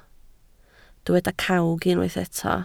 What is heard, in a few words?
"cawg", Welsh